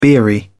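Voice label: "beery", English, British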